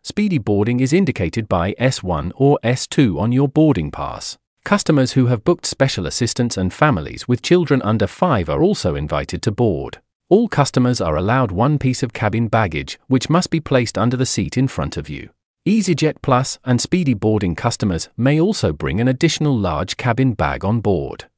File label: Glasgow, Announcements, UK Generic; Easyjet